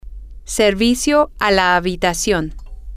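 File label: room service, Spanish, female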